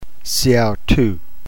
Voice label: "seautou", Greek